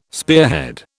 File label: "spearhead", British